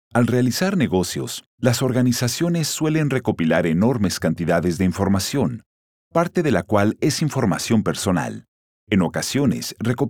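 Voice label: Spanish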